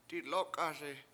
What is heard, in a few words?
"dilOk7ASi" I got scared, Washo